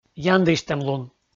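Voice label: "яндысьтӧмлун", Komi, male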